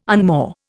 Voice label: "unmoor", Indian

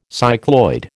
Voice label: "cycloid", American

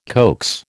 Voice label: "coax", American

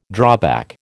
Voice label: "drawback", American

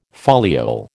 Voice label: "foliole", American

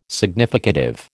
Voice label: "significative", American

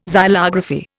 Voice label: "xylography", Indian

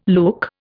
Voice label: "look", Indian

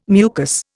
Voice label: "mucus", Indian

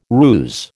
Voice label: "ruse", American

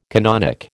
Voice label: "canonic", American

